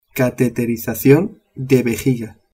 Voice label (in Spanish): "caterizacion" spanish